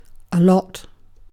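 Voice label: "lot", British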